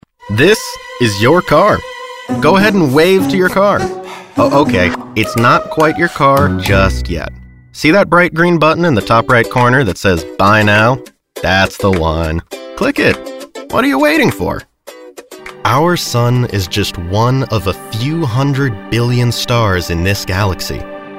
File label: NARRATION